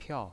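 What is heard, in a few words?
"piao" fourth tone, pinyin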